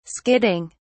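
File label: "skidding", British English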